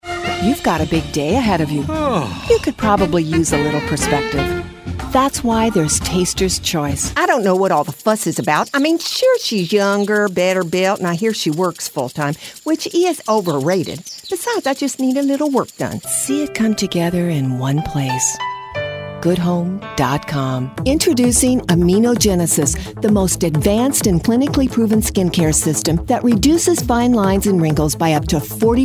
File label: Commercial